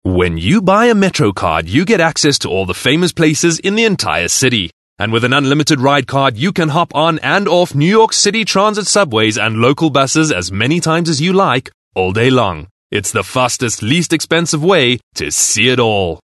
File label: English Hardsell